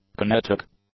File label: "kinetic", english; adjectives